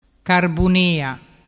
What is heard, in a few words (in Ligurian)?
"carbunea", dialettu